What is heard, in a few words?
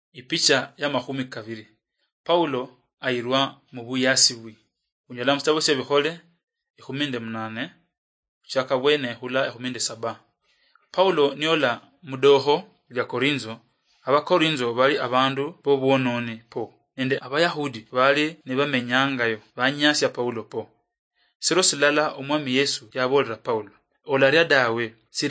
acts of the holy spirit luyia marachi, drama